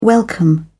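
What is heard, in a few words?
"welcome", British English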